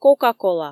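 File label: "кока-кола", Komi, female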